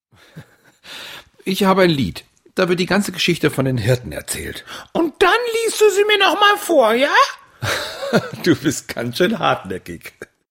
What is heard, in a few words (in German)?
Hoerspiel; Willibald